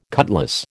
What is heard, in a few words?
"cutlass", American